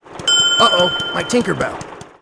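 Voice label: tinker pissed, English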